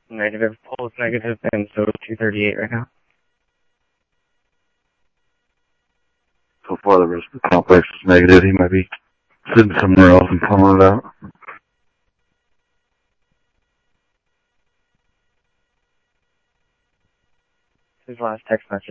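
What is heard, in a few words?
Mesa police department, scanner audio trunk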